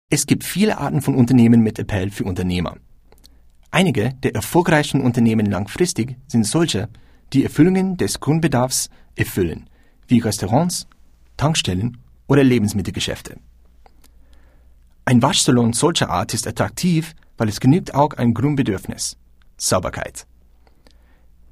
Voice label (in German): Deutsch